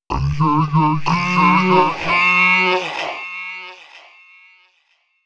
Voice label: male, voice; Mutekki Media; Dance Ultra Voice VOL-1

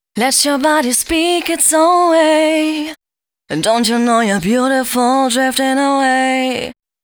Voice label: female g# 140, hooklines, Dance Ultra Voice; hooklines 136-140 BPM; Mutekki Media; Dance Ultra Voice VOL-1

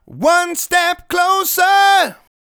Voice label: "One Step Closer", vocal phrases; Ultimate Vocals

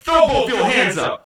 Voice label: Male FX Vocals; Houseworx

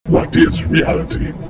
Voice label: vocoded; whatisrealitysample; M8; RESISTINSIST

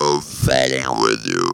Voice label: "with you", Funky Vocals; USB Soundscan vol.18; AKAI

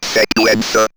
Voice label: Robot Sequenser, robot vox; X-Static Goldmine

